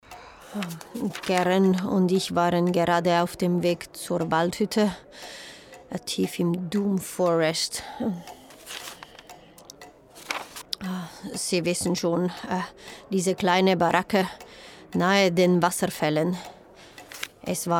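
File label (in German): Hörspiel Krimi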